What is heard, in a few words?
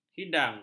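"hidang", Malay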